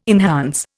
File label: "enhance", Indian